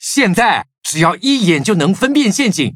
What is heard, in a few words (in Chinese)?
levelup, zh-hant; cookie run